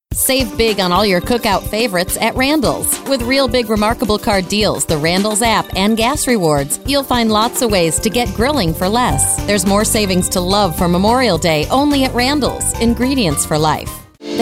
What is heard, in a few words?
Commercial Auto; Commercial Retail Demo